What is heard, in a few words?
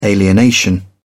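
"alienation", British English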